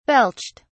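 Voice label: "belched", British English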